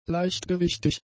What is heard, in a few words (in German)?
"leichtgewichtig", German; adjectives